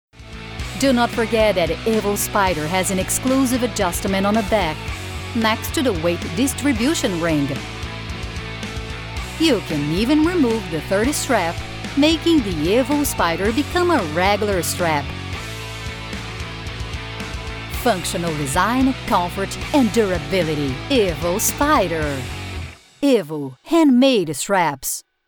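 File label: Commercial, female; PT BR EN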